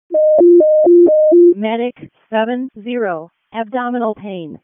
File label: EMS ALERT; wake; p25